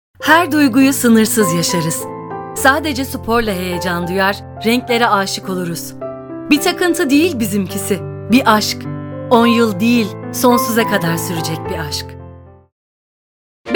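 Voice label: Turkish, Male Voices Turkish Voiceover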